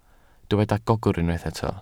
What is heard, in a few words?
"gogr", Welsh